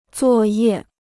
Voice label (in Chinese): "作业", Chinese